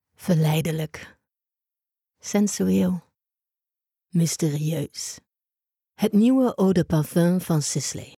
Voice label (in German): Werbung NL; LOFT VOICES